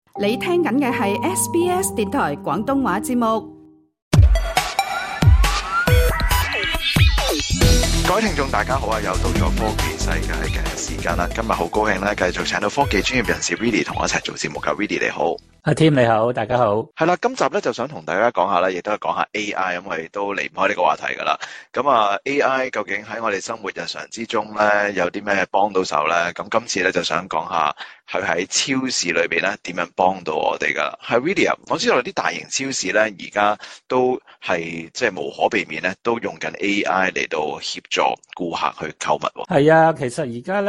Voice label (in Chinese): cantonese; technology world